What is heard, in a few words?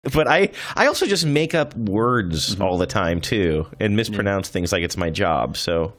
make up words mispronounce